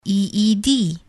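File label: eed spell, English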